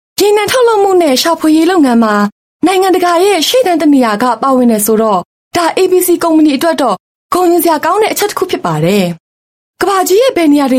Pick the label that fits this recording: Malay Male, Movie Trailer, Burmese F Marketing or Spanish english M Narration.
Burmese F Marketing